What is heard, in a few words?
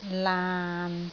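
"laan6", Cantonese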